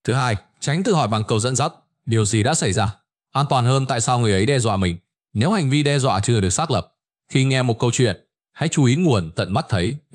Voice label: narration